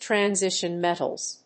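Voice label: "transition metals", English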